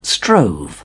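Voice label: "strove", British English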